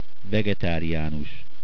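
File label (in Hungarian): vegetarian, hungarian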